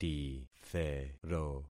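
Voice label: "tithero", concatenated syllables; Affixing experiment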